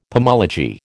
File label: "pomology", American